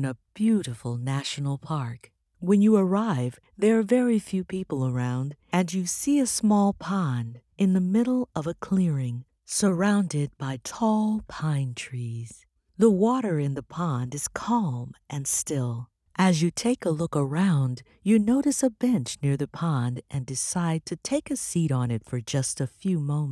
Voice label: Stress Reduction Meditation